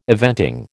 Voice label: "eventing", American